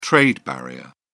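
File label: "trade barrier", British English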